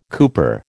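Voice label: "cooper", American English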